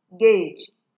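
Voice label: "gage", Nigerian Pidgin, female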